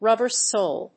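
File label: "rubber sole", English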